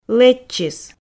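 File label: "лэччис", Komi, female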